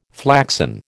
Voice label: "flaxen", American